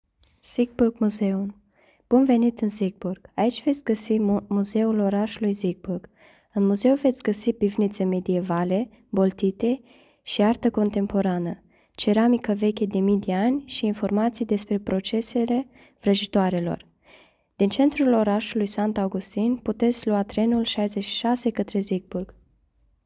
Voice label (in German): rumanisch; Siegburg Museum